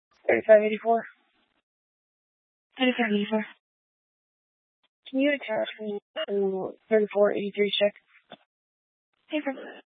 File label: Police Dispatch